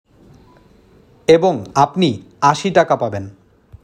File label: male